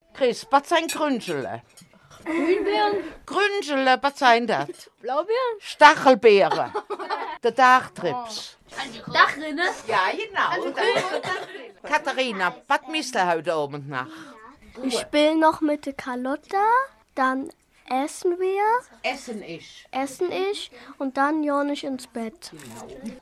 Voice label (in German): Eifeler Platt in Rieden